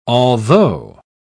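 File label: "altho", British English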